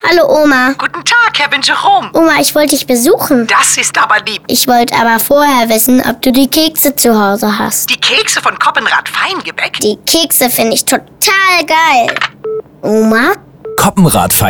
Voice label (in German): Werbung Telefon; Coppenrath